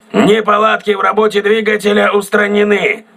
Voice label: voice message engine repaired, crew ships russian